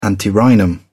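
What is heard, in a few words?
"antirrhinum", British English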